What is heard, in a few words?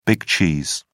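"big cheese", English, British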